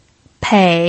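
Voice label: "pei", third tone, Mandarin Chinese pinyin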